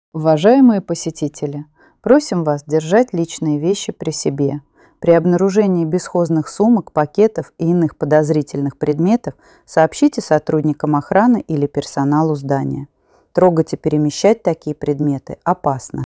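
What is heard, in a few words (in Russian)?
оповещение антитеррор бдительность; rechevye soobshcheniya soue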